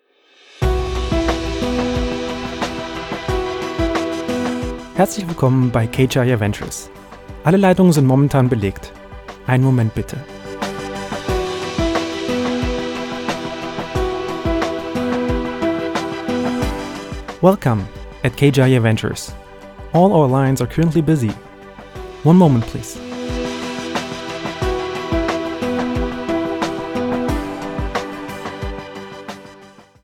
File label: IVR DE EN; Jaya Ventures